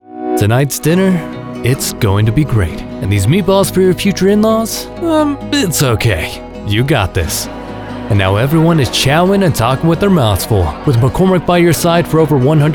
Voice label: Commercial